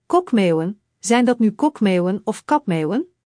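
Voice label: "kokmeeuwen", Dutch; Spelling Gr4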